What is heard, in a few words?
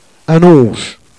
"onche", jerriais